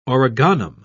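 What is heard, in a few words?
"origanum", British English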